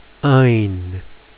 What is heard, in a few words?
ign ie n, phonics